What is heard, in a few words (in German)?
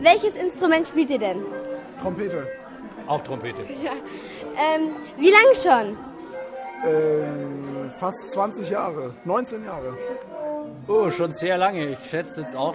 Interview Trompeten; Serenade 2015